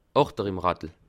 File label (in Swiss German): "achter", alsace